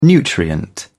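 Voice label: "nutrient", English, British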